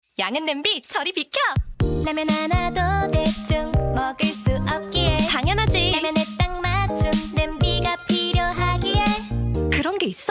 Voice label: 일반인톤 광고 송멘트

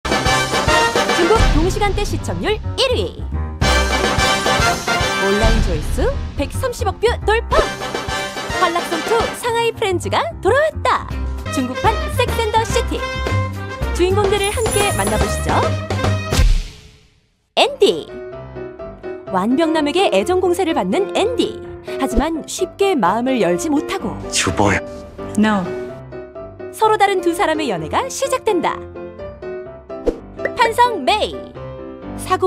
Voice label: 밝은 드라마 소개 내레이션 밝은 20대

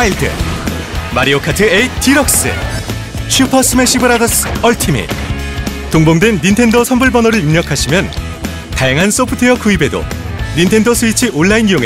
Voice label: 이벤트 CM; 닌텐도코리아

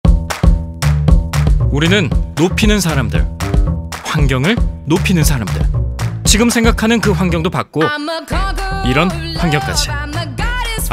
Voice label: 기업 소개 영상; 유한킴벌리

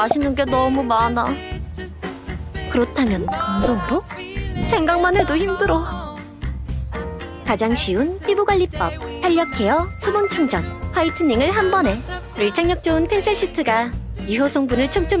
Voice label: 광고 밝은 직장인 인플루언서 느낌 마스크팩; 퓨어로제

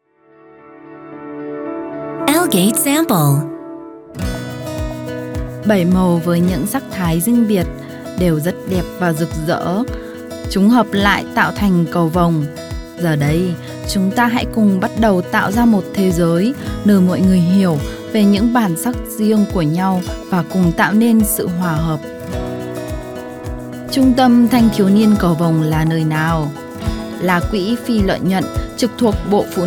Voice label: 베트남 여 차분한톤

